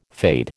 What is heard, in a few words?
"fade", American